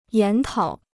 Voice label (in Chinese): "研讨", Chinese